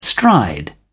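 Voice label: "stride", English, British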